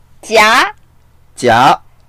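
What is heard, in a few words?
"jia2" Mandarin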